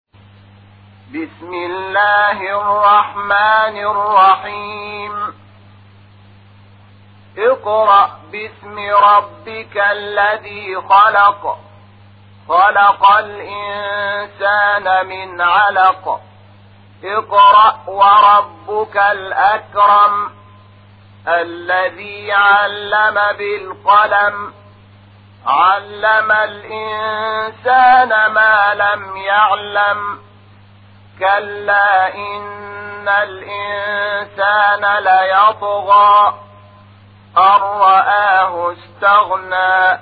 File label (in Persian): ترتیل قرآن کریم سوره علق; محمود شحات انور